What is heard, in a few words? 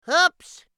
"Oops" Cartoon